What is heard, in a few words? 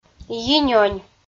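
"йинёнь", Komi, female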